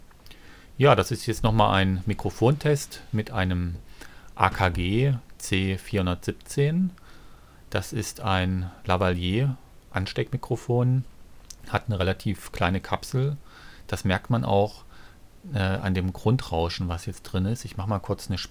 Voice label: UR22 AKG C417; AKG C417 direkt besprochen; Screencasting; Screencasting für Einsteiger und Anfänger